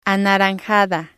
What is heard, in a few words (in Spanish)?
"anaranjada", spanish